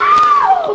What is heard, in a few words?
ow female